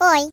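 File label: "Oops", British; hedgewars; Default uk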